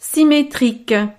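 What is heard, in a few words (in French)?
"symetrique", French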